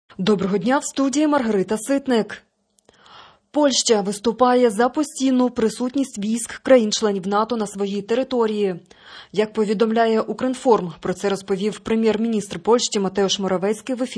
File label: Ukrainian Morning News